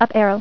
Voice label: "Up Arrow", female voice; TalkingKeys